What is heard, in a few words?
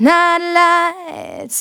Phrase Female; Vengeance Samples; Phrases